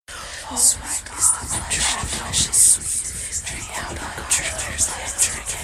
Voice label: whispering in the wind

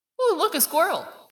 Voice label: spooky voice

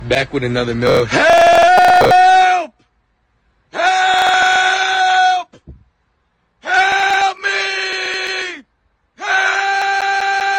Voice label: help me glitched

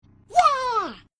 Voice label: cute yay; roblox

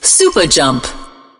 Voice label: super jump announcer; marble blast ultra

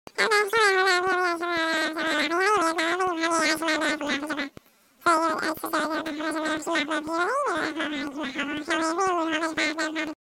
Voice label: cartoon phone gibberish

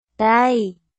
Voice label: dieeeeee slowmo to 0 5

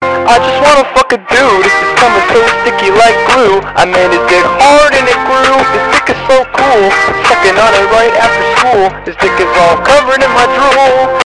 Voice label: bass boost

